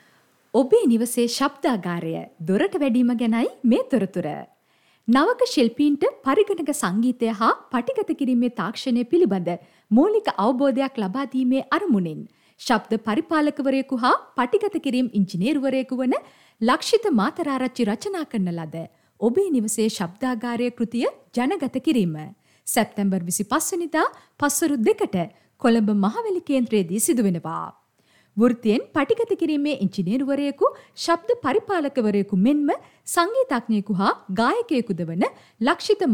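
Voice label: FEMALE COMMERCIAL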